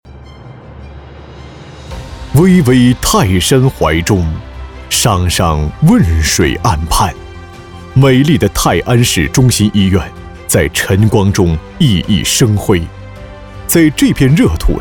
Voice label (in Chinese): 男 医院宣传片; 泰安市中心医院妇科宣传片文案脚本